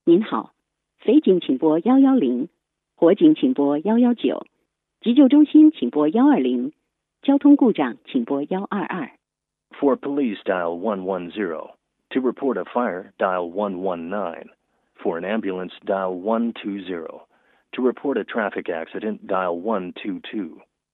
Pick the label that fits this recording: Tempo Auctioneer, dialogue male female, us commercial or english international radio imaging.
dialogue male female